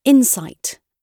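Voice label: "insight", British English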